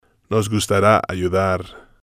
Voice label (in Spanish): "nos Gustara Ayudar", spanish